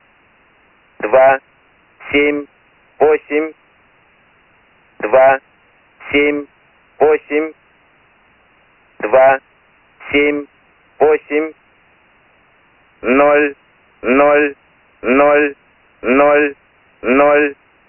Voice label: russian number station am usb; priyom